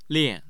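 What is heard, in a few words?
"lian", Mandarin Chinese, tone 4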